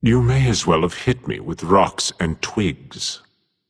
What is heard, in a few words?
Taunt Directed; Death Dragon Anubis; Bellona